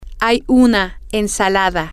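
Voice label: there is a salad, Spanish, female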